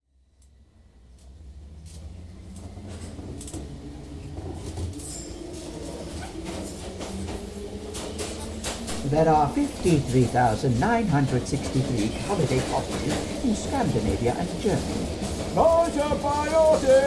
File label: Scene all with Scratch Voices